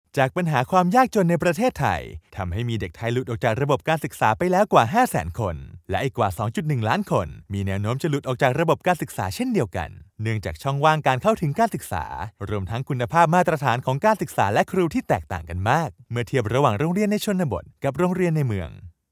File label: Thai narration